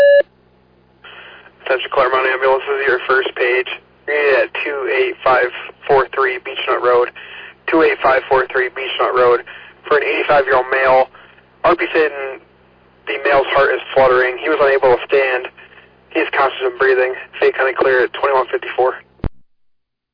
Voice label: Fire, dispatch; Clermont

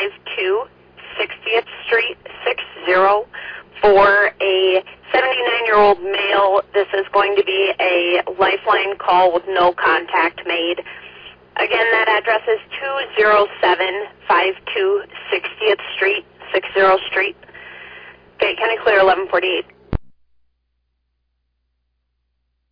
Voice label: Oelwein Ambulance, dispatch

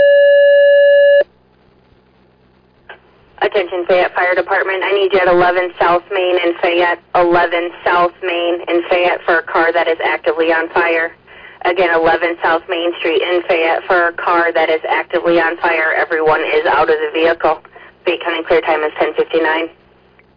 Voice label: West Union Fire, dispatch

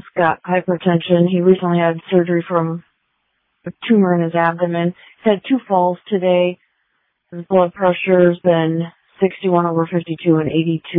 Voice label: Waverly Ambulance, dispatch

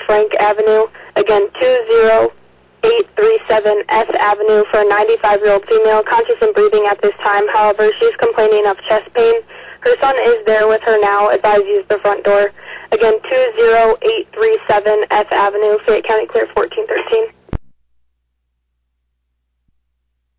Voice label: Ambulance, dispatch; Elgin

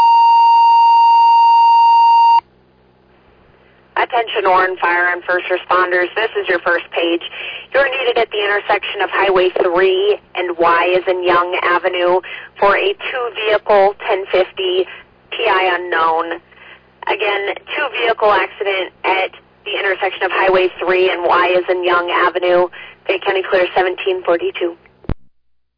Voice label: Oran Fire, dispatch

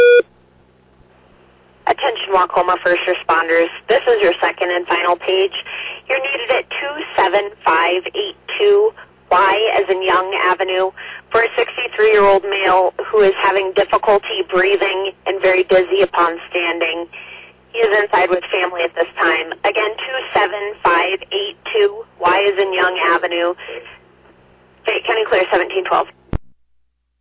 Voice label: Waucoma First Responders, dispatch

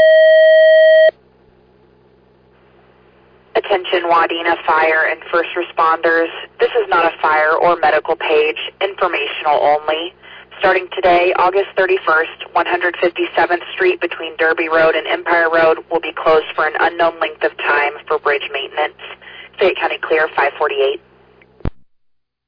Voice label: Fire, dispatch

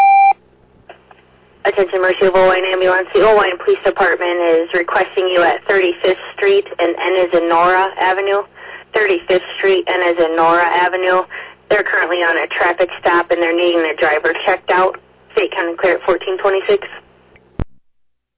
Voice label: Oelwein Ambulance, dispatch

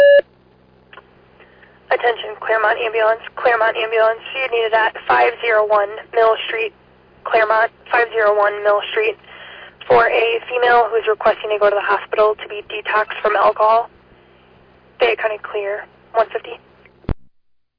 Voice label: Fire, dispatch; Clermont

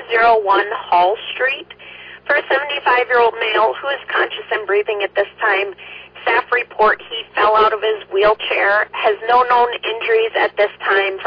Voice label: Ambulance, dispatch

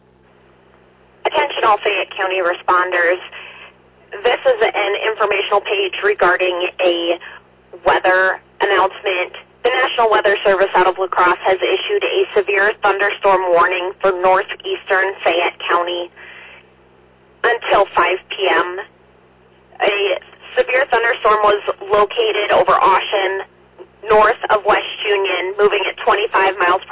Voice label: all call, dispatch; Fayette

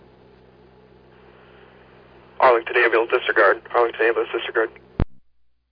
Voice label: Arlington Fire, dispatch

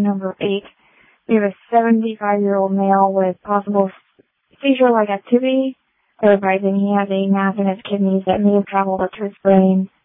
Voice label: Waverly Ambulance, dispatch

